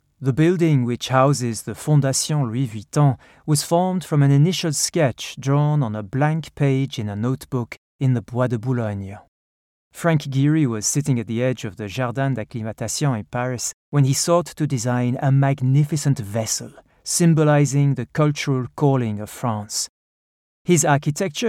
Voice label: French Accent